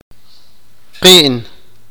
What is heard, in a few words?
sipakapense; corto